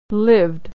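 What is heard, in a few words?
"lived", American English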